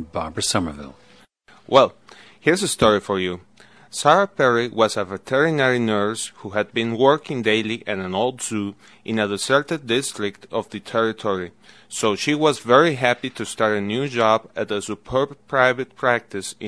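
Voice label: colombia, International Dialects of English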